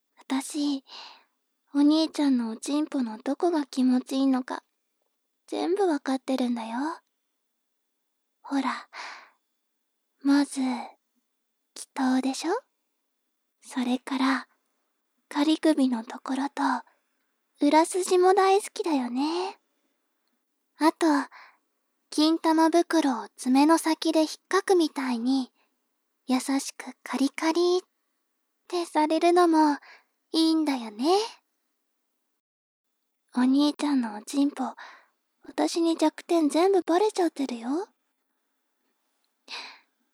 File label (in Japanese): 妹 台詞